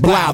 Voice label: "blaow", ooh ahh